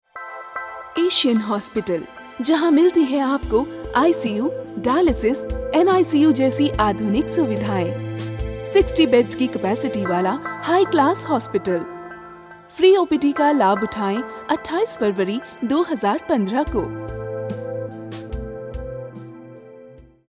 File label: Hindi, native Hindi voice artiste female